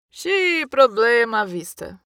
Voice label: error page generic with energy female brazilian portuguese voiceover